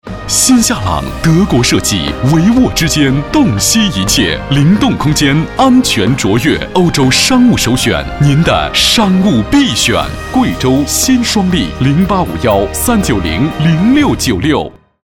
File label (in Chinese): 国语男 大气车广告; 夏朗商务